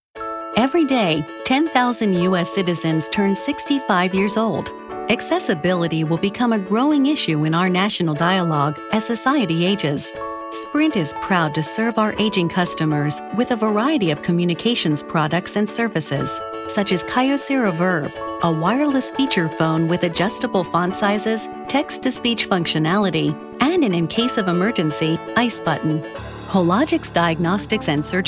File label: Corporate Narration